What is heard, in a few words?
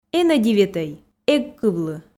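Komi, female; энӧ дивитӧй эг кывлӧй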